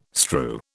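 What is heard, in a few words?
"strew", British English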